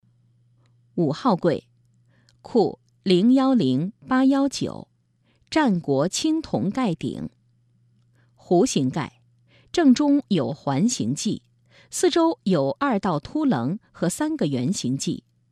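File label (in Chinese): 解说电子导游解说词; 四川省博物馆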